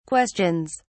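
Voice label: "questions", British English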